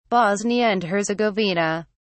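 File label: "bosnia and herzegovina", British English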